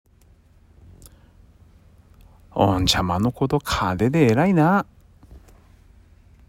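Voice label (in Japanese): 津軽方言 例文, おんちゃまの こと かでで えらい な; （弟の面倒をみてえらいね）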